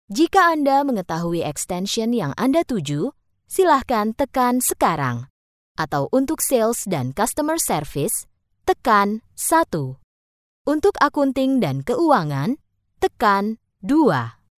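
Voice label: Indonesian, female, interactive voice response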